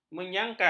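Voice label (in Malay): "menyangkal", Malay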